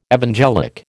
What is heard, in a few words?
"evangelic", American